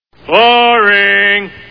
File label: boring